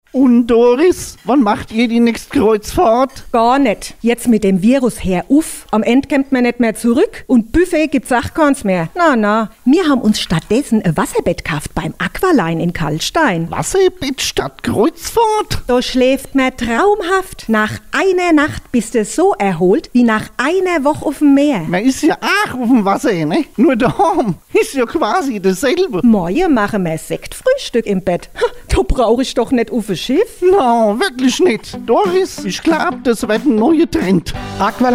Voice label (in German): Radiospot; Kreuzfahrt